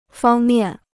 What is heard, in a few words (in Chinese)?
"方面", Chinese